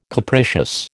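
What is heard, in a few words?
"capricious", American English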